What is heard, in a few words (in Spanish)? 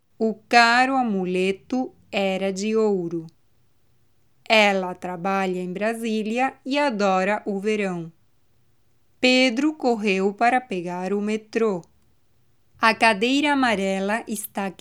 Trabalenguas con R suave; letra r en portugues brasileno